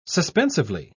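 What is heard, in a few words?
"suspensively", British English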